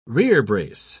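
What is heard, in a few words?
"rerebrace", British English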